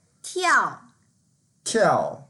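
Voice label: "tiao4", Mandarin Chinese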